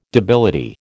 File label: "debility", American